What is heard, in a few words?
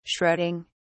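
"shredding", British English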